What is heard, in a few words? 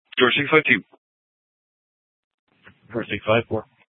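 Sheriff, Parks, Sheriff radio scanner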